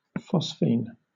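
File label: "phosphine", British English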